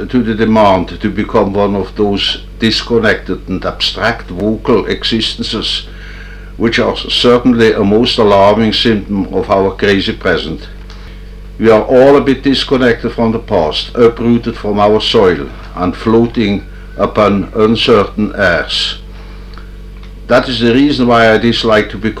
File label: jung voice